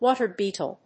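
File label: "water beetle", English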